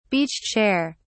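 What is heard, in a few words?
"beach chair", English, British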